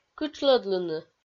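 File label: "кытшлӧдлыны", Komi, female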